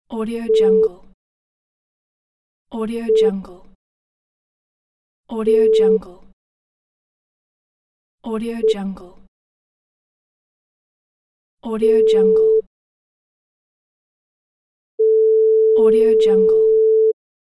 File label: explicit swearing beep pack